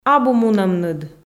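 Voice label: "абу мунӧмныд", Komi, female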